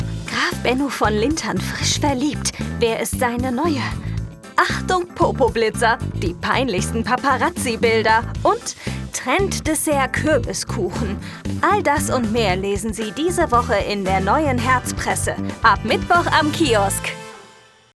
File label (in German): Werbung Klatschpresse